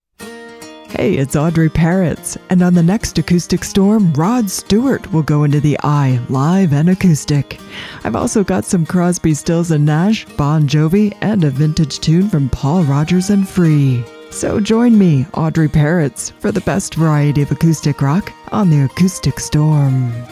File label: PROMO; Acoustic Storm; USRN-FM; kkcl-am